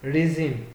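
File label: "reason", Nigerian Pidgin, male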